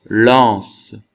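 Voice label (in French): "lance", French; phonemes; Mots francais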